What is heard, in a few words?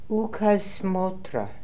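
"Ookazsmotra", pronunciations; Fourth Way; Beelzebub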